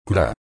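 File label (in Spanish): "cra", Spanish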